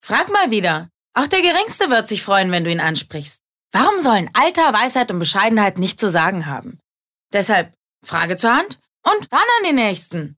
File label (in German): Werbung (Frech)